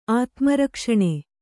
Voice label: "ಆತ್ಮರಕ್ಷಣೆ", Kannada

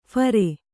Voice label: "ಫೇರಿ", Kannada

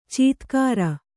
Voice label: "ಚೀತ್ಕಾರ", Kannada

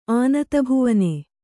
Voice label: "ಆನತಭುವನೆ", Kannada

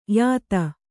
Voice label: "ಯುತಿ", Kannada

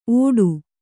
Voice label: "ಔಡು", kannada

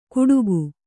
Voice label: "ಗುಡುಗು", kannada

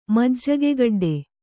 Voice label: "ಮಜ್ಜಗೆಗೆಡ್ಡೆ", Kannada

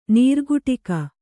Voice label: "ನೀರುಕುಟಿಗ", kannada

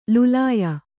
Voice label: "ಲುಲಾಯ", Kannada; lulāya; noun; a male buffalo.

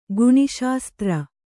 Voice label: "ಗುಣಿಶಾಸ್ತ್ರ", Kannada

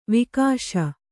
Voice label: "ವಾಗೀಶ", Kannada

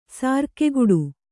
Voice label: "ಸಾರ್ಕೆಗುಡು", kannada

